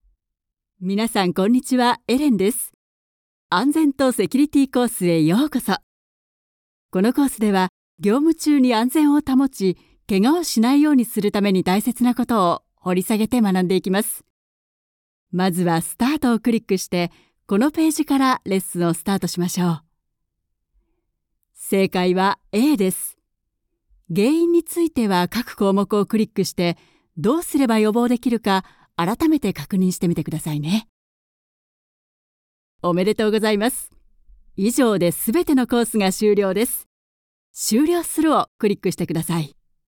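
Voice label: Japanese, E-learning; GoLocalise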